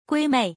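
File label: "gui mei", Mandarin Chinese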